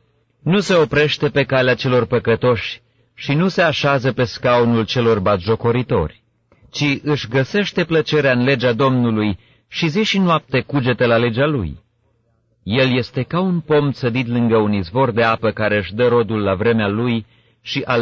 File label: romanian psalm